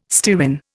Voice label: "stearin", Indian